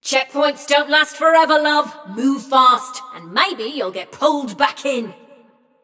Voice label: Scrap Run Go To Checkpoint, Announcer; Flux